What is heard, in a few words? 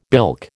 "bilk", American